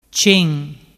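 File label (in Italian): "cen", dialect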